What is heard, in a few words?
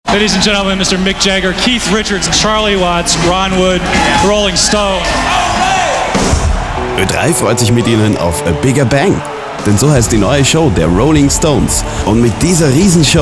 Promo; OE3